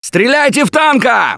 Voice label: battlecry tank, russian; Gambler

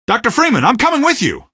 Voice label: squad reinforce single, male; Garry's Mod; hl2

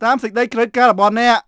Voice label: Khmer Order; Beyond the Sword; Units

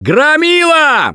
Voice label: Warn Charger, russian; Gambler

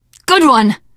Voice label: nice shot, teen girl; Left 4 Dead 2

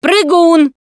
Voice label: warn hunter, russian, Survivor Voice Producer

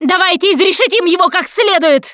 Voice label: battlecry tank, russian, Survivor Voice Producer

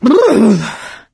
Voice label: adrenaline, survivor voice; Left 4 Dead 2; producer

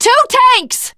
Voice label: teengirl, voice; Left 4 Dead 2; tank

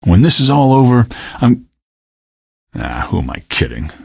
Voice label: question, male; Garry's Mod; hl2

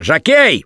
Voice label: warn jockey, russian; Gambler

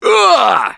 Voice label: Hurt Major, russian; Gambler

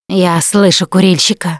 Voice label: heard smoker, russian; Producer

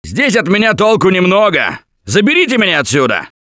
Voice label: call for rescue, russian; Gambler

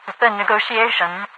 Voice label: suspend negotiations, radiovoice; Garry's Mod; hl2; overwatch

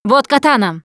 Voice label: melee weapons, russian, Survivor Voice Producer

